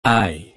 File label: Phoneme, Male; Commonscript; Lynguistykon